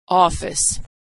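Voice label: "office", American English; vowel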